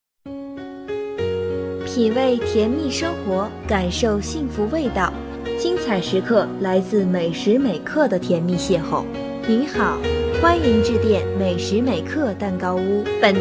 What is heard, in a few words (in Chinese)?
女 彩铃样音